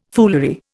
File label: "foolery", Indian